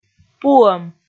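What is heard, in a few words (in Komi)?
"пуӧм", Komi, female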